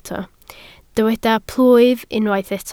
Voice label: "plwyf", Welsh

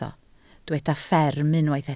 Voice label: "fferm", Welsh

